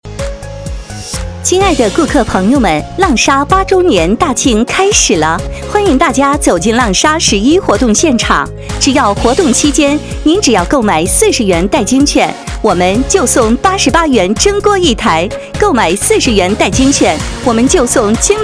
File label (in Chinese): 广告 促销 亲切; 浪莎